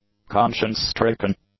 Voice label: "conscience-stricken", English; adjectives